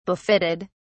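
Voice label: "befitted", en, uk British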